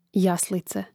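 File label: "jaslice", Croatian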